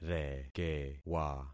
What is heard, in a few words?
"rekewa", concat syllables; Affixing experiment